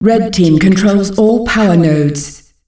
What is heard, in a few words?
Red team controls all powernodes, announcer female; Unreal Tournament; ut2004